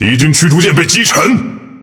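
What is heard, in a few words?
Speech Destroy Enemy Destroyer, Chinese; World Of Warships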